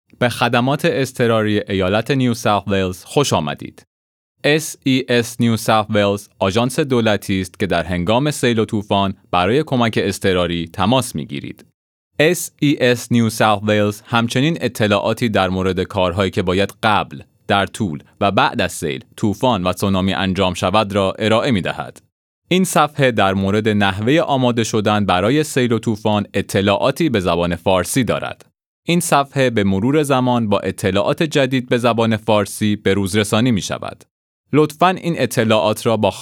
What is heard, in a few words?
farsi